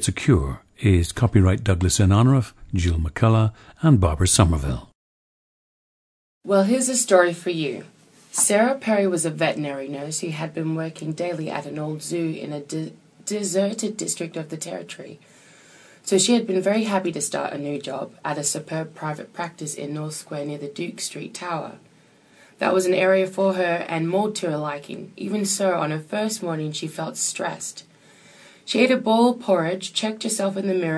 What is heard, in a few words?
australia